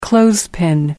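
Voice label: "clothespin", British English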